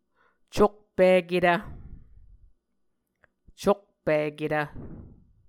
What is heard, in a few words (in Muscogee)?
"cokpiketv", Muscogee; Mvskoke Words with Voicing Consonants; A Dictionary of Creek/Muskogee; SIL International